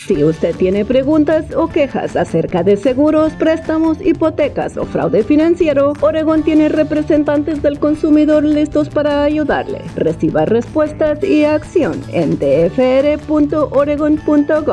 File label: Spanish; 2019 General DFR advocates